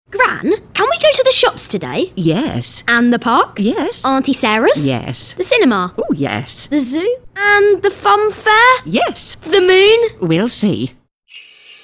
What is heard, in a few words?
Kidztalk